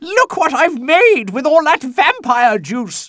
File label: Voices English; Professor Hamilton Kift; MediEvil 2